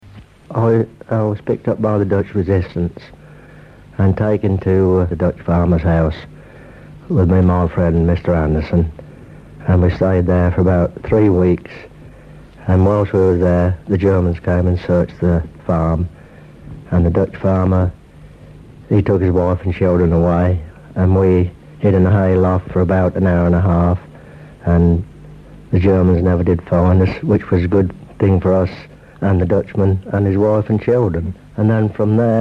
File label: interview; war